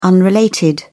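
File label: "unrelated", British English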